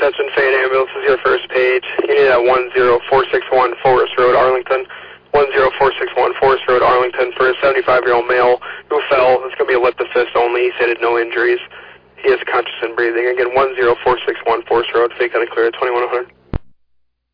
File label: Ambulance, dispatch